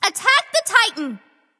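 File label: vox attack male; smite; artio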